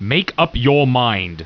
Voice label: annoyed; war2; humans; basic human voices